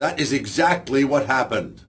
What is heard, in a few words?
male 60 angry